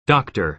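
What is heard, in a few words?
"doctor", American English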